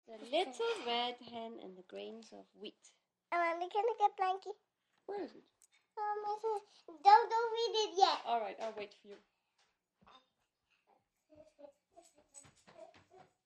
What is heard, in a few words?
3 Yr Old Girl Reading; kids play